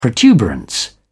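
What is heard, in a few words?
"protuberance", British English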